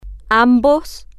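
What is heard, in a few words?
"ambos", Spanish, female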